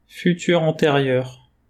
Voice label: "Future anterieur", French

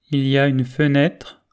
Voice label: "fenetre", French

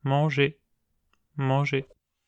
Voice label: "manger", French

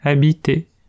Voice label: "habiter", French

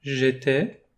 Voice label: "jetais", French

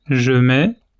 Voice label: je mets, French

